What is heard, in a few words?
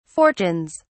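"fortunes", English, British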